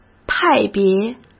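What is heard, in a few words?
"派别", Mandarin Chinese; chinese-flashcards; hsk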